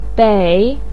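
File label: "bei3", Mandarin Chinese, third tone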